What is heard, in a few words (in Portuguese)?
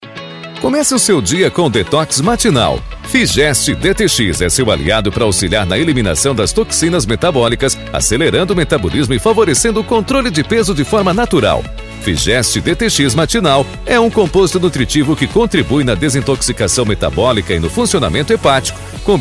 Spot Voz Masculina; Figest DTX